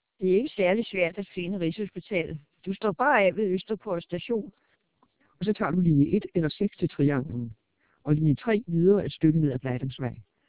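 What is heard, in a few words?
twelp 1600 Danish speech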